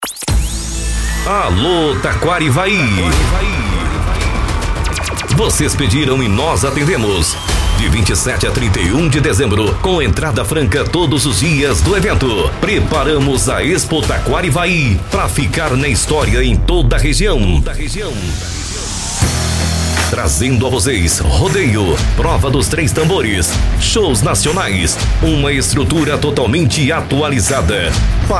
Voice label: LOCUTOR; Expo; Taquarivai

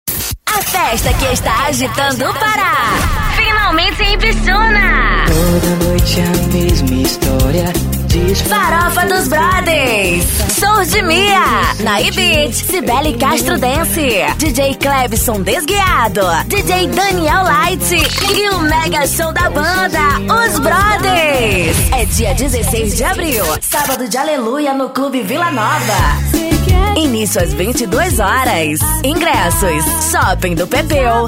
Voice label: locutora, chamadas

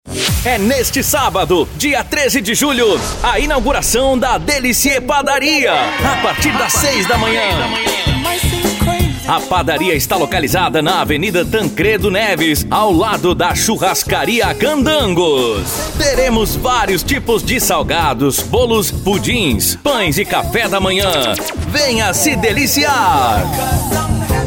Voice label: locutor, comerciais; Padaria; Delicie Padaria

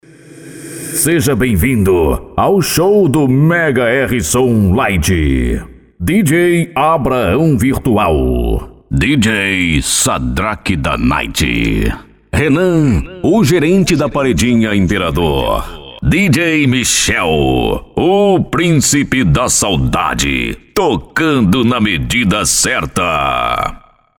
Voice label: vinhetas; São vinhetas sem efeitos sonoros de fundo, voz tratada, equalização e limpeza de ruídos

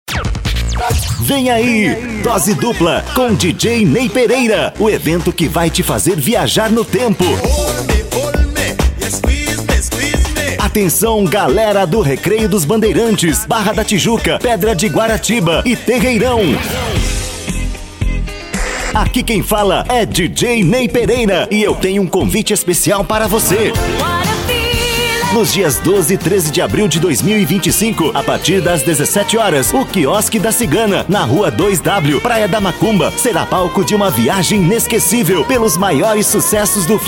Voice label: LOCUTOR DJ

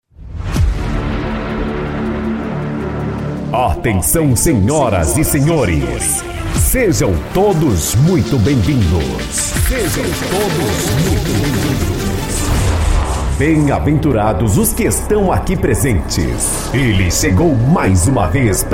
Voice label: ABERTURA DJ VOZ

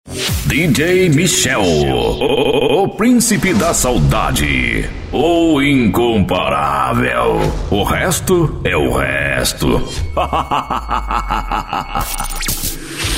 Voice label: vinhetas

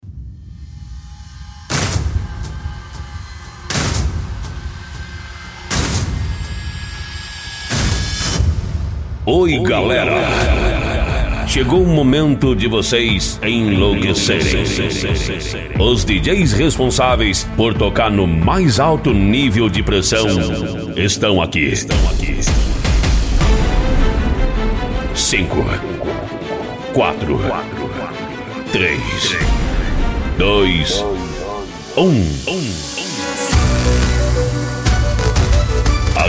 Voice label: Abertura Dj Voz

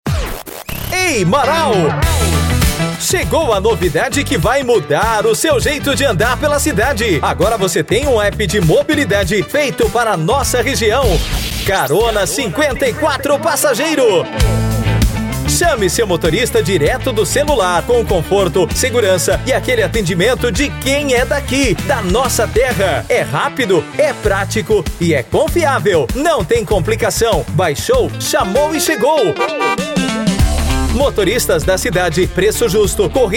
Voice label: LOCUTOR; Carona 54 Passageiro

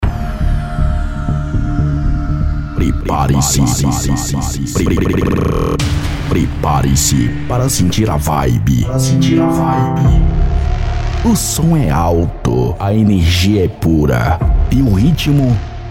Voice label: ABERTURA DJ VOZ

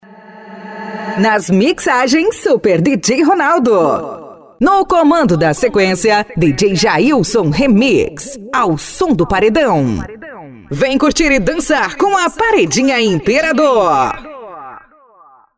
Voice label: VINHETA OFF ♀; São vinhetas sem efeitos sonoros de fundo, voz tratada, equalização e limpeza de ruídos

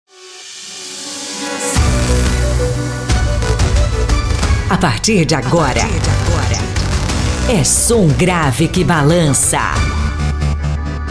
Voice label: ABERTURA DJ VOZ

